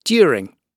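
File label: "during", British English; Oxford Audio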